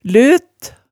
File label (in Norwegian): "løt", hemsedalsmaalet tale